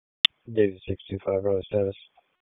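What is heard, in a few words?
Police Southwest District Dispatch, p25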